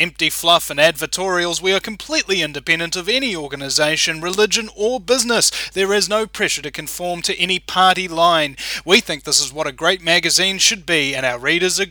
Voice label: uncensored radio ad